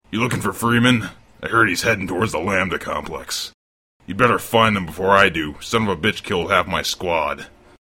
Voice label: grunt chat; svencoop; sectore